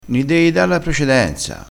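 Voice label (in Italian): "do", dialettale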